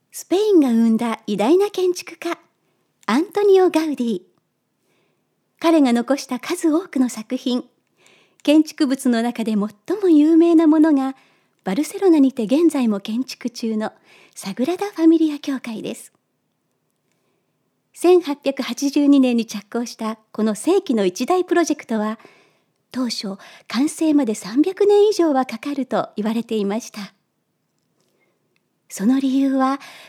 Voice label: narration voice; ナレーション